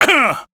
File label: Pain, Male, en; Railjack Crew; Arbiters Hexis